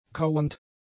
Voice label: "kauernd", German; adjectives

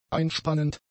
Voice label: "einspannend", german; adjectives

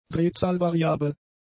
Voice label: "drehzahlvariabel", German; adjectives

